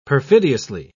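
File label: "perfidiously", British English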